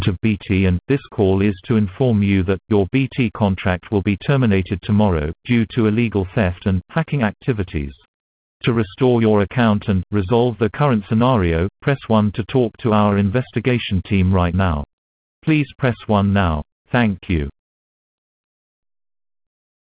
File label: Scam phone call; CAMBS